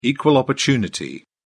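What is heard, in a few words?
"equal opportunity", British English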